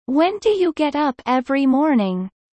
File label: low